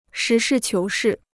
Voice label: "实事求是", Chinese

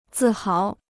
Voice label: "自豪", Chinese

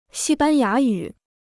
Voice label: "西班牙语", Chinese

